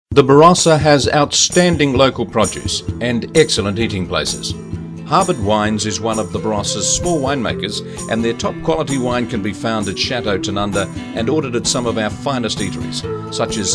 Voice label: Radioad; Harbord